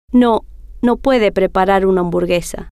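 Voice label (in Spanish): no Puede Preparar Hamburguesa, spanish, female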